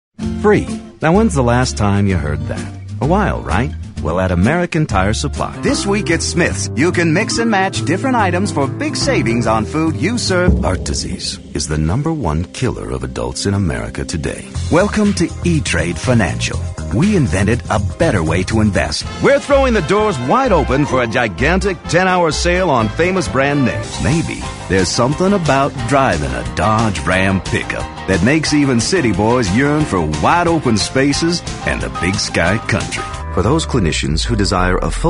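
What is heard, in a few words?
commercial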